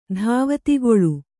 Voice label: "ಧಾವತಿಗೊಳು" Kannada; dhāvatigoḷu; (Verb); = ಧಾವತಿಗೊಳ್ಳು.

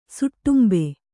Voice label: "ಸುಟ್ಟುಂಬೆ", Kannada

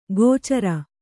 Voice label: "ಗೋಚರ", Kannada

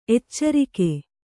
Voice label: "ಎಚ್ಚರಿಕೆ", Kannada

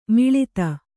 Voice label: "ಮುಳ್ಳೊತ್ತು", Kannada

